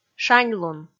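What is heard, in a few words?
"шаньлун", Komi, female; kpv audio dict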